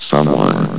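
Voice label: "someone", male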